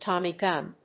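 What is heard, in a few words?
"Tommy gun", English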